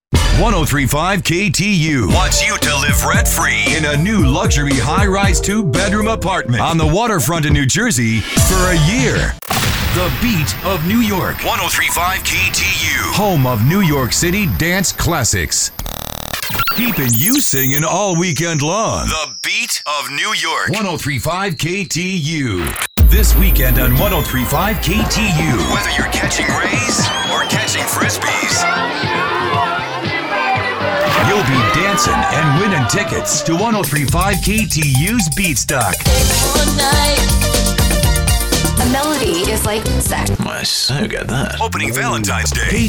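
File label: radio rhythmic ac, radio imaging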